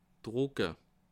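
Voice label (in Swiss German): "drucke", Bas Rhin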